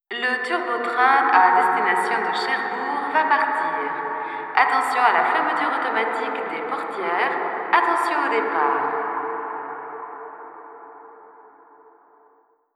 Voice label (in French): voix rev; audio ease speakerphone